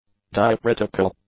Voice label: "diacritical", english; adjectives